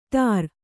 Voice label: "ಢೋರ", Kannada

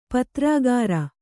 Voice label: "ಪತ್ರಾಗಾರ", Kannada

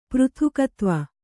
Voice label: "ಪೃಥಕ್ತ್ವ", Kannada

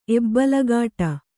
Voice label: "ಎಬ್ಬಲಗಾಟ", Kannada

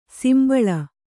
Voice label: "ಸಂಭಾಳಿ", Kannada

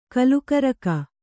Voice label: "ಕಲಿಕಾರಕ", Kannada

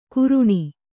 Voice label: "ಕುರುಣಿ", Kannada

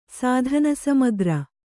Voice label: "ಸಾಧನಸಮಗ್ರ", Kannada; sādhana samagra; noun

